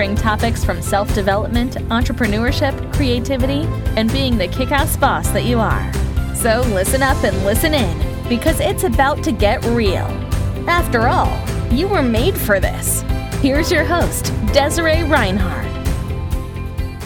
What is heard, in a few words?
Podcast Samples